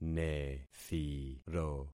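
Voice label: "nethiro", concatenated syllables; Affixing experiment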